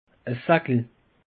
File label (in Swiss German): "sackel", alsace; peche